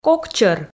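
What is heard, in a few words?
"кокчӧр", Komi, female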